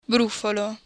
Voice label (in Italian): "brufolo", italian